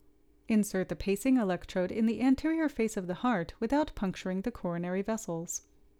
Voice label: Voiceover